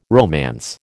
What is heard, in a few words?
"romance", American